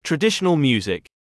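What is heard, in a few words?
"traditional music", English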